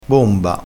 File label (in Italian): "bomba", vocabolario dialettale